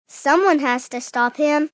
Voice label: Kids Someone Has, Male, child; Talking Sound Effects